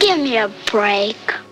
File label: "give me a break", kid; Voice